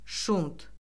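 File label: "şunt", Azerbaijani; Orfoqrafiya Lüğəti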